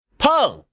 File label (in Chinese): "peng", man